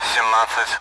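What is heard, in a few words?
Speech Sound Russian Common AWACS Numbers; DCS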